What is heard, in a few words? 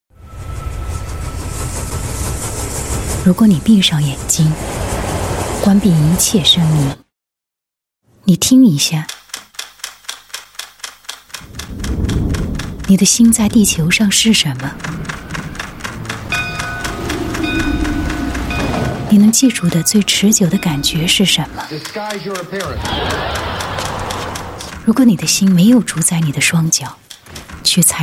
Mandarin Brand Promo Ad, China Female